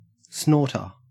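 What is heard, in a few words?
"snorter", Australian English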